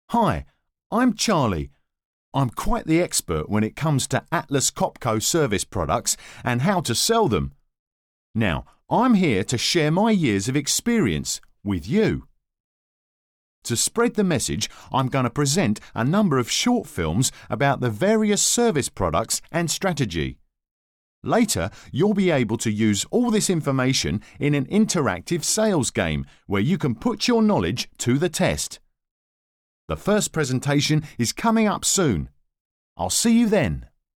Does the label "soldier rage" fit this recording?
No